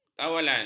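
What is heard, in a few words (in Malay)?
"kawalan", Malay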